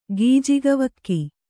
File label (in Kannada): "ಗೀಜಗವಕ್ಕಿ", Kannada